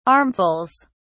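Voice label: "armfuls", British English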